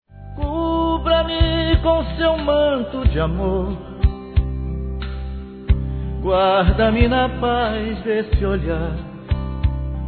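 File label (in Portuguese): Romântica religiosa, feminino; Telemensagens; Românticas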